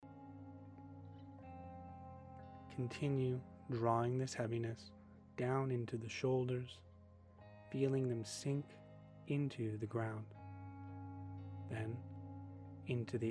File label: Colour Coded Guided Meditation for Relaxation Integration Male Voice